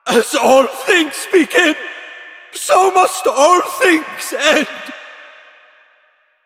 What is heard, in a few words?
Male Older; Villagers; en; Ostron; Warframe; Cetus Invasion